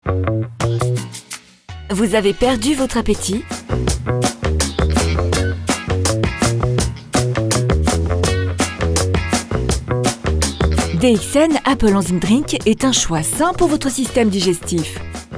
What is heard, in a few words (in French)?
VO PUB; DXN